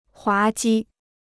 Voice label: "滑稽", Chinese

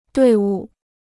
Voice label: "队伍", Chinese

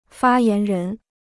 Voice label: "发言人", Chinese

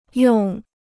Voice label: "用", Chinese

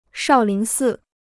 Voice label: "少林寺", Chinese

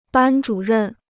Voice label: "班主任", Chinese

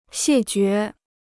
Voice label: "谢绝", Chinese

